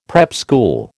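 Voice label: "prep school", American English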